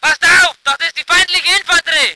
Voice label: voice ger vehicle

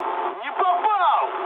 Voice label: bomb failure, mood med, speech ru; War Thunder

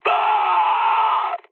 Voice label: damaged pilot, mood high, speech; War Thunder

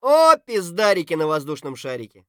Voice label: mutter; Escape from Tarkov

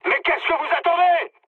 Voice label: attack position mood high, speech fr; War Thunder

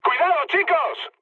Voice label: near waypoint, mood med, speech; War Thunder

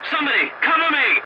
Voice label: voice message cover me, english; War Thunder

